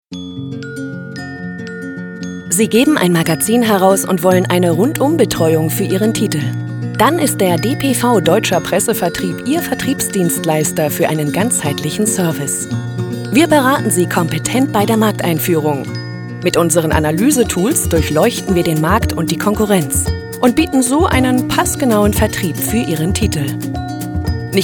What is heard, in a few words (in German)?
imagefilm; dpv